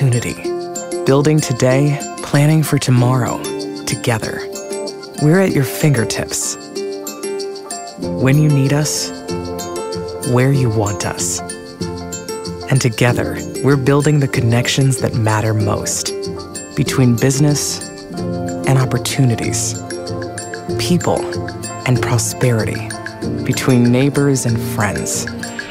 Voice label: Welcoming warm bubbly comforting trustworthy financial